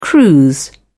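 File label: "cruise", British English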